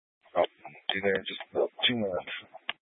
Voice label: Police Dispatch, Scanner audio recording, Grand Junction, Mesa County, Colorado; Police 1 Dispatch